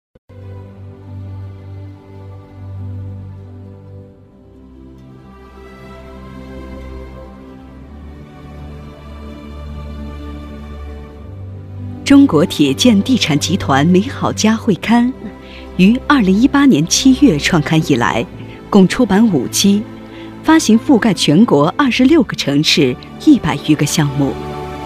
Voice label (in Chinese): 娓娓道来